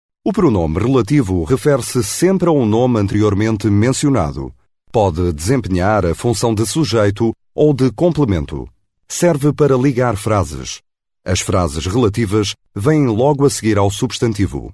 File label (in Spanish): locutor portugues